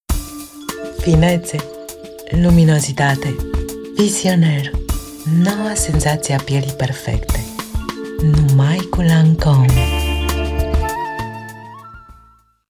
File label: Soft selling